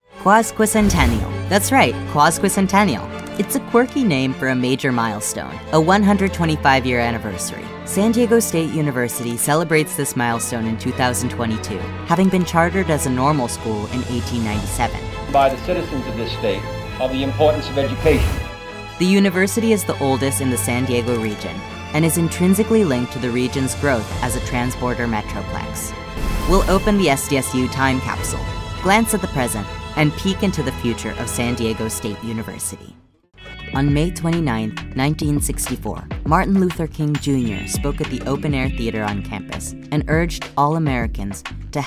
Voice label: Documentary